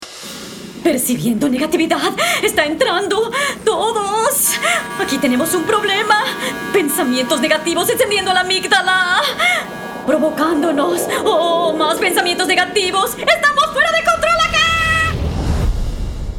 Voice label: spanish latin american animation, latin american spanish voice actors